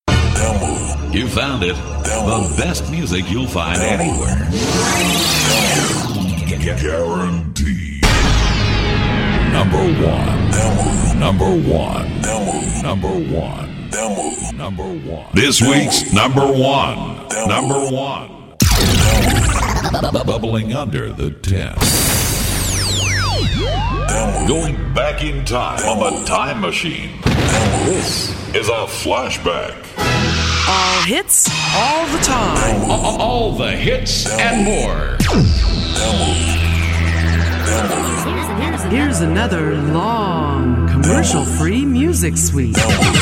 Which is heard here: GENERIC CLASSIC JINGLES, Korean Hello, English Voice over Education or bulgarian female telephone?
GENERIC CLASSIC JINGLES